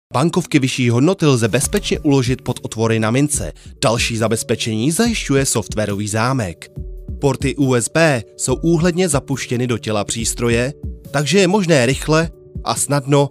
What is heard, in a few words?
CZECH Male